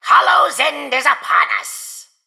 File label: Male Mechagnome Emote HAPPY HALLOWEEN